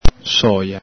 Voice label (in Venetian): "soja", Veneto